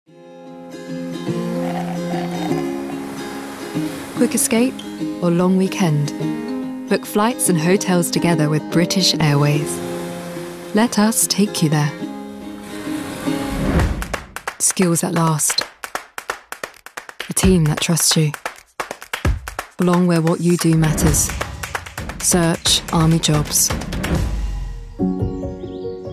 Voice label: Advertising